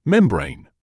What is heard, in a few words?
"membrane", English